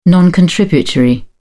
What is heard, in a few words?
"non contributory", en uk